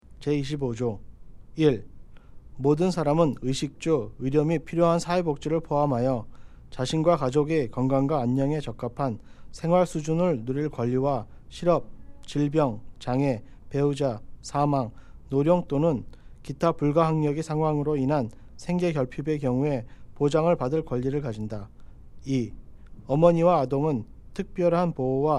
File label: Korean